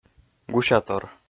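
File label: "гусятор", Komi-Zyrian, male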